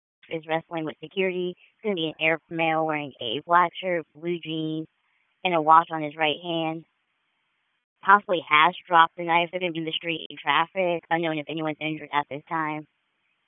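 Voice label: Police Southeast District Dispatch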